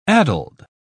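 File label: "addled", English, British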